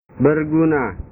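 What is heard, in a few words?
"berguna", Indonesian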